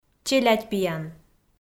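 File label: "челядьпиян", Komi, female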